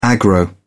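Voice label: "aggro", British English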